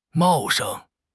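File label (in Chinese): "茂盛", Chinese; HSK; HSK6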